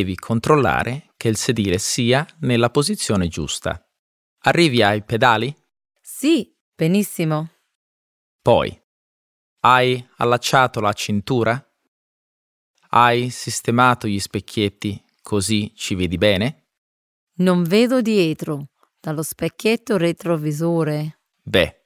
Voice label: Italian audio stimulus